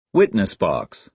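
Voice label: "witness box", American English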